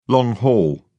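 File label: "long haul", British English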